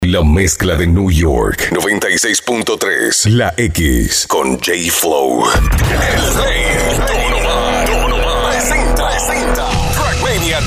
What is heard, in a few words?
RADIO IMAGING